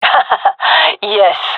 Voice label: round winning, gendarmerie fem; vo; agents

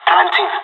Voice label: planting bomb, pirate; Counter Strike Model Pack; CS:GO

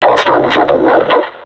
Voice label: taunt, vo combine suppressor; Half Life Alyx

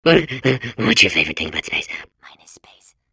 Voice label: babble; Portal 2

